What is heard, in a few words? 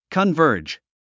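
"converge", English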